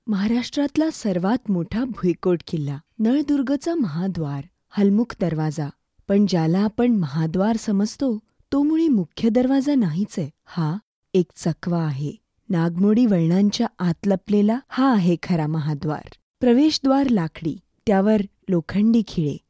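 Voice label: documentary marathi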